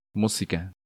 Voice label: "Musica", Spanish